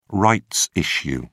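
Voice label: "rights issue", British English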